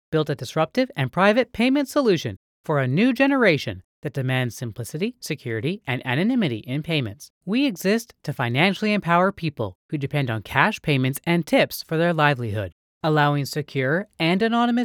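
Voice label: English American, female, voice over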